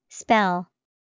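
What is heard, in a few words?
spell, English vocabulary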